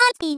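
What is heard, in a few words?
"rspin", voice; Techmino; rin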